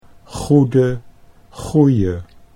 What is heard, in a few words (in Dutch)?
goede goeie, Dutch